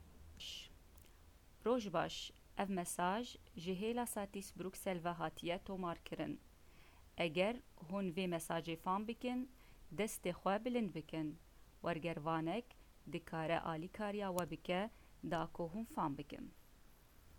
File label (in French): Kurmandji